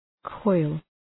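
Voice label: "coil", English; {kɔıl}; κουλούρα; σπείρα; τυλίγω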